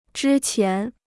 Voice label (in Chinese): "之前", Chinese